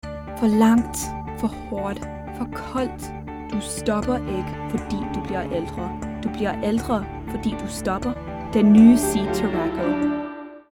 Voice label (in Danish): Danish Language